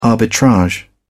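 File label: "arbitrage", British English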